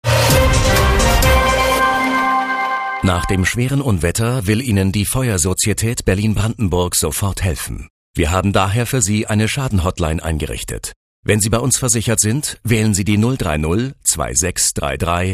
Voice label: Kraftvoll; VKB

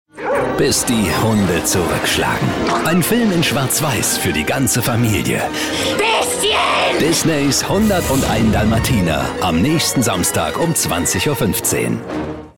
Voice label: Lebendig

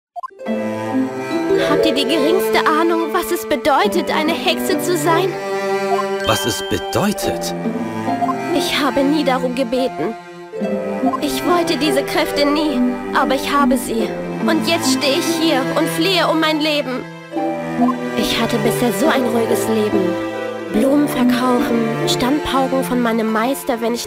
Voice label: Schauspiel Freundlich

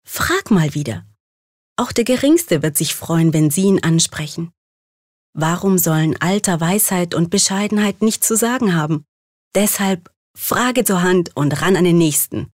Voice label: Werbung Sanft

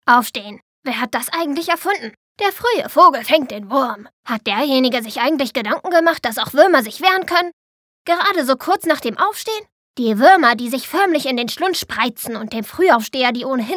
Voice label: Der Fruehe Vogel Kindlich

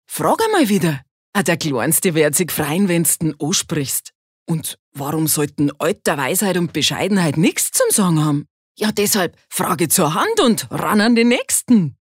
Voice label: Dialekt Bayerisch

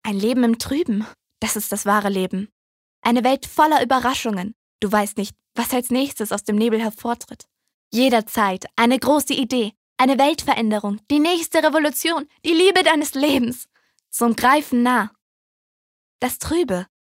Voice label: Klarheit Dynamisch

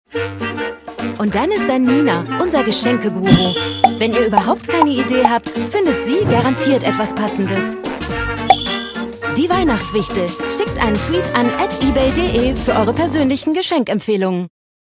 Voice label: Fremdtakte Freundlich

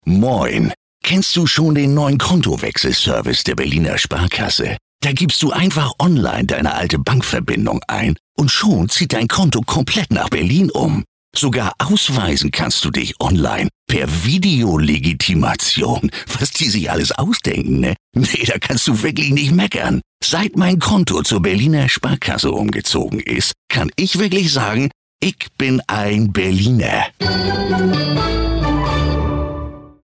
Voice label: Dialekt Norddeutsch

